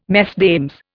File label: "Mesdames", Indian